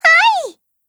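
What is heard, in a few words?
AGREE, Japanese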